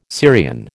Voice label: "Syrian", American

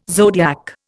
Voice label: "zodiac", Indian

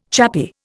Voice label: "chappy", Indian

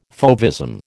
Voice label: "fauvism", American

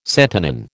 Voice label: "santonin", American

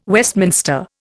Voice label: "Westminster", Indian

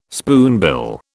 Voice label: "spoonbill", British

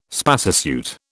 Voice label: "spacesuit", British

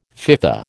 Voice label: "FIFA", American

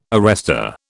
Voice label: "arrester", British

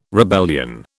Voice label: "rebellion", British

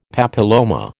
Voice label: "papilloma", American

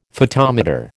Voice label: "photometer", American

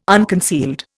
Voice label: "unconcealed", Indian

